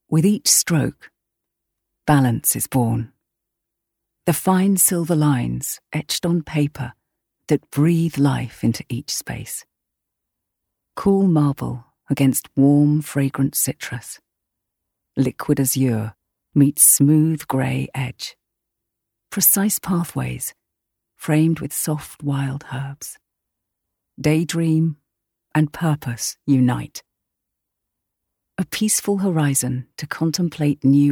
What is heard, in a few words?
Overseas Property Company Explainer